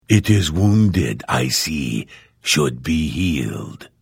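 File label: Hello, Vo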